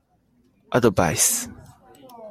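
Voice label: "advice", Japanese, male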